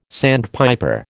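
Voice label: "sandpiper", American English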